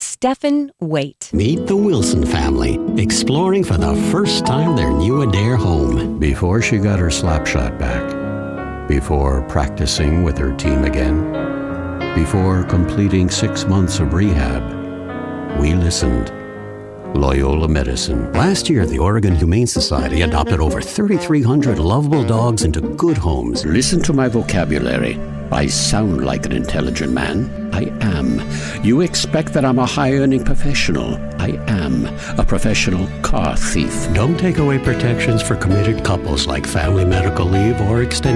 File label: commercial